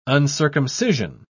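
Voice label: "uncircumcision", British English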